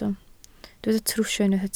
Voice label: "trwsio", Welsh

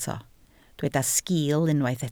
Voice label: "sgil", Welsh

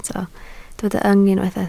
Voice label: "yng", Welsh

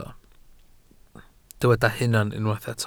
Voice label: "hunan", Welsh

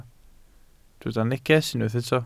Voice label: "neges", Welsh

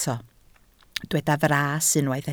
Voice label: "fras", Welsh

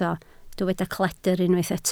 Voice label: "cledr", Welsh

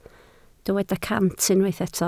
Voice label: "cant", Welsh

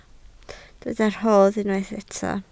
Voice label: "rodd", Welsh

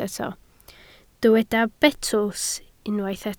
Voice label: "betws", Welsh

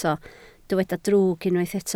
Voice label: "drwg", Welsh

